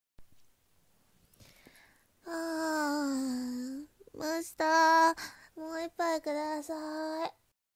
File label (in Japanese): お姉さん×甘々 ね、今日あたしと君が会えたのって運命だと思わない？ 年上系シチュエーションボイス; 男性向けASMR